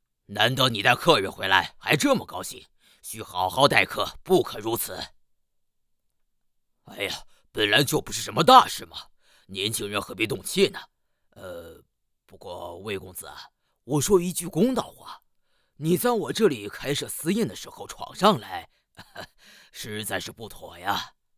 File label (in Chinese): 壮汉